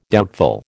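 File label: "doubtful", American English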